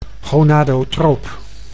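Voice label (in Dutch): "gonadotroop", Dutch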